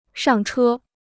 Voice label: "上车", Chinese

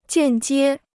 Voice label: "间接", Chinese

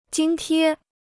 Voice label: "津贴", Chinese

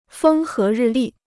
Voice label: "风和日丽", Chinese

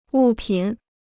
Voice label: "物品", Chinese

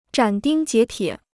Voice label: "斩钉截铁", Chinese

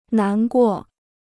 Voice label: "难过", Chinese

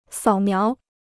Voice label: "扫描", Chinese

